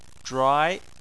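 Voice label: "zhuai" Chinese, second tone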